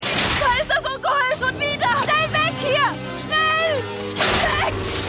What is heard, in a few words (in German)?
Bewohnerinnen, Stimmproben; Hercules